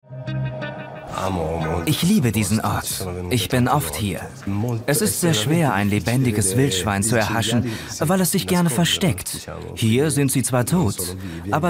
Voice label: voice-over; arte metropolis; bologna

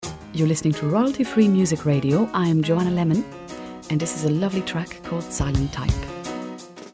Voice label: gb radio stationvoice

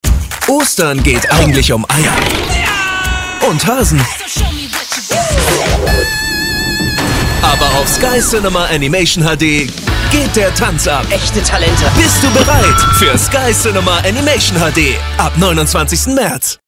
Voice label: trailer; sky cinema hd

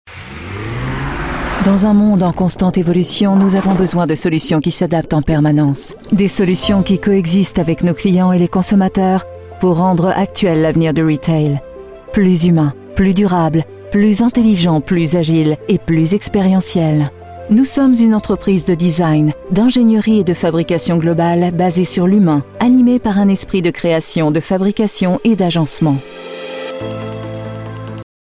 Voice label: fr corporate

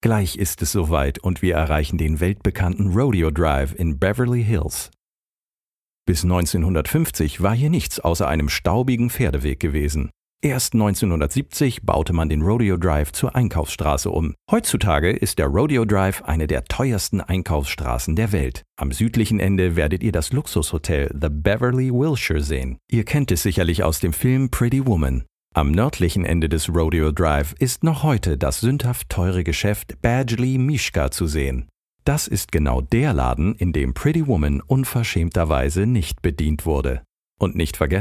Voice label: mono; rodeo drive

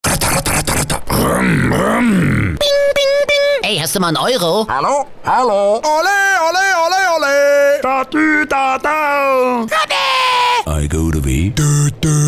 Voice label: vocal fx; fnp

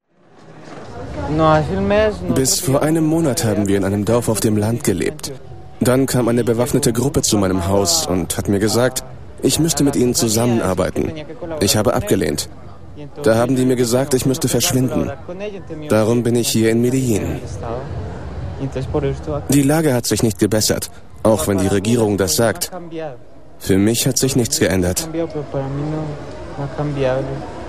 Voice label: voice over Reportage; Muttersprache Deutsch; Sprecher in Berlin; arte

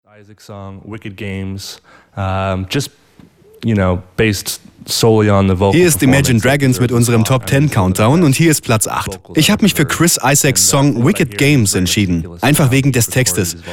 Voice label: voiceover

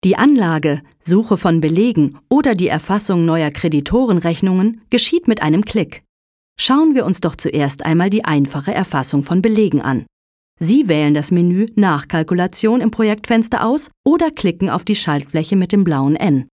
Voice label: e-learning

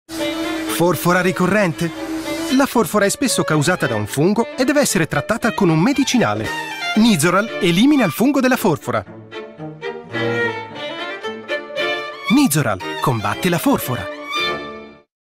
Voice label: commercial; nizoral

